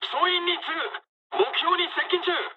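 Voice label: near waypoint mood med, speech japanese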